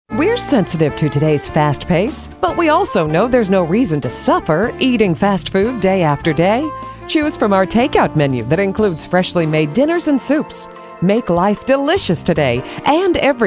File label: female moh